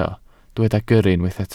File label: "gyrru", Welsh